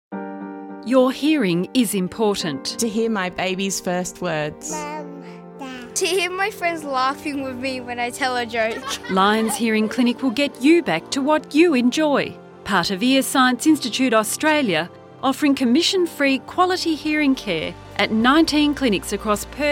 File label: Radio Commercial; Lions Hearing Clinic Woodvale